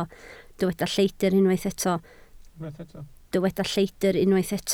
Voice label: "lledr", Welsh

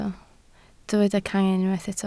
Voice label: "cangen", Welsh

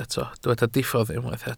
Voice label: "diffodd", Welsh

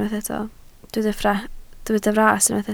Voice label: "fras", Welsh

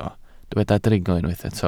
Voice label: "dringo", Welsh

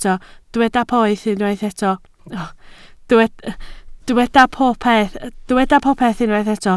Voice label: "popeth", Welsh

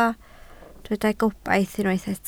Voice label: "gobaith", Welsh

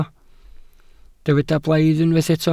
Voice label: "blaidd", Welsh

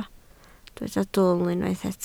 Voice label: "dwl", Welsh

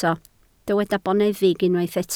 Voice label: "boneddig", Welsh

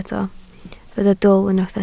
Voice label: "dwl", Welsh

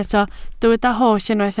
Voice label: "holl", Welsh

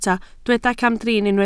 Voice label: "camdrin", Welsh

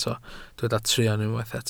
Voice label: "truan", Welsh

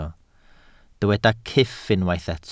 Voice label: "cyff", Welsh

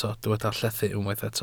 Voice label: "llethu", Welsh

